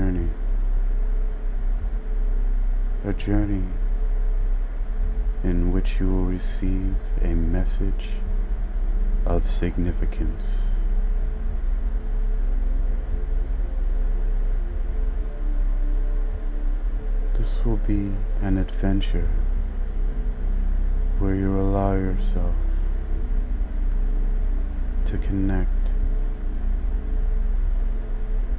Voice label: Dolphin Guided Meditation